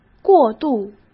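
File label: "过渡", Mandarin Chinese; chinese flashcards; hsk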